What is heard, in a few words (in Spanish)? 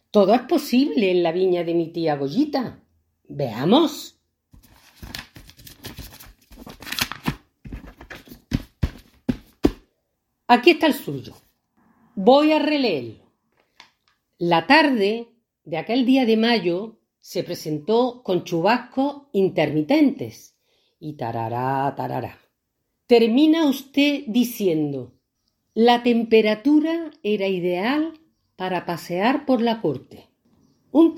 profesora; mucho teatro; presentador asterisco